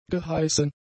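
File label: "geheißen", German; adjectives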